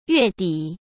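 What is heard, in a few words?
"月底" Mandarin Chinese; chinese flashcards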